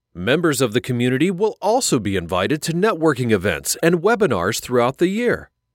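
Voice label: american corporate, Friendly US Accented Voiceover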